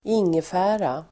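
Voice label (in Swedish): "ingefära", Swedish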